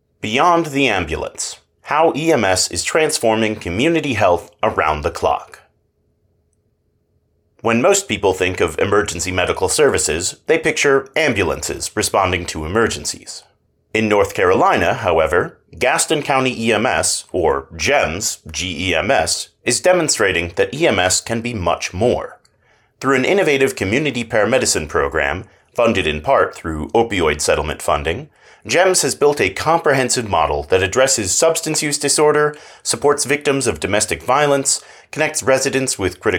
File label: Voiceover; Gaston County GEMS; community paramedicine